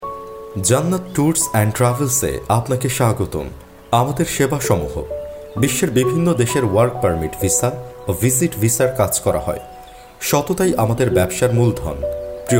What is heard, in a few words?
caller tune; জান্নাত ট্যুরস ট্রাভেলস